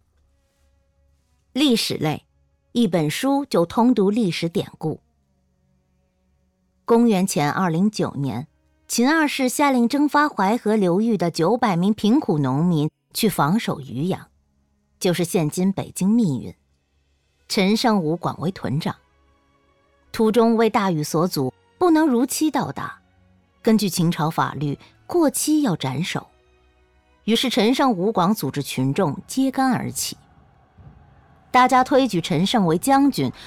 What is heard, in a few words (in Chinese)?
有声书历史类